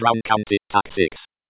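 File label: BC Tac 6, Computer Voice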